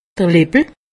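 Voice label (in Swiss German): "lipp", Haut Rhin; medecine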